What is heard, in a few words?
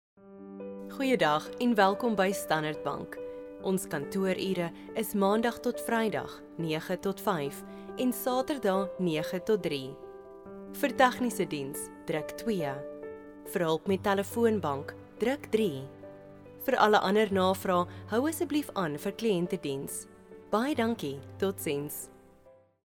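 Afrikaans IVR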